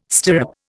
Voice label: "stirrup", Indian

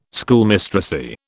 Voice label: "Schoolmistressy", British

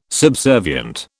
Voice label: "subservient", British

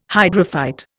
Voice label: "hydrophyte", Indian

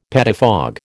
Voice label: "pettifog", American

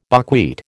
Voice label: "buckwheat", American

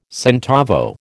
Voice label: "centavo", American

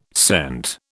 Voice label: "scent", British

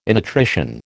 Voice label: "innutrition", American

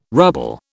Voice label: "ruble", British

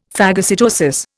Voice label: "phagocytosis", Indian; Dictionary

